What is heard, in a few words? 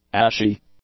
"ashy", English; adjectives